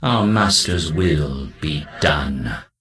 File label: Death Knight Male Low Greeting; Scourge